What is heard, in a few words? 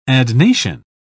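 "adnation", British English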